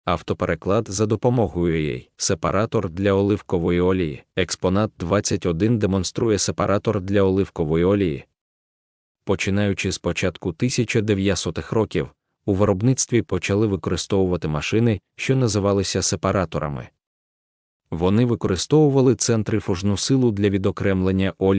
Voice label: ukrainian; elaiodiachoristiras